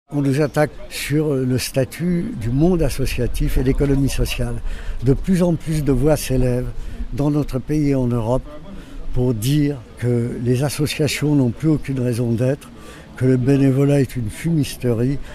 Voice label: radio; palmer court